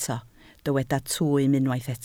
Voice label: "twym", Welsh